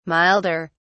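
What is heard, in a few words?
"milder", British English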